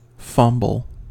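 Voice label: "fumble", American English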